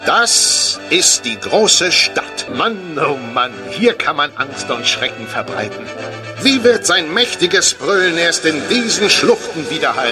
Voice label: narrator